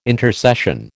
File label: "intercession", American